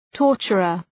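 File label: "torturer", English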